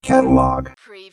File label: Robot voice "catalog"; lynne publishing